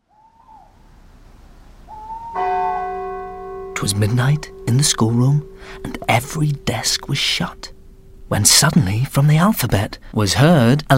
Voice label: Character Voices; The ABC